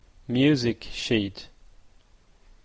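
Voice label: "music sheet", Canadian English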